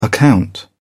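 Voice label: "account", British English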